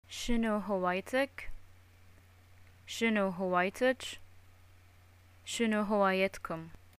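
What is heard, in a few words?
what are your hobbies, Bahraini Arabic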